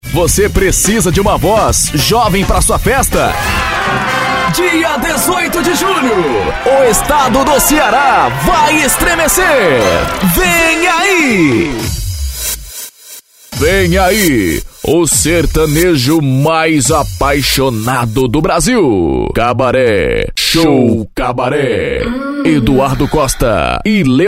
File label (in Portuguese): locutor